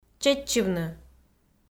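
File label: "чеччывны", Komi, female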